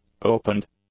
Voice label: "opened", English; adjectives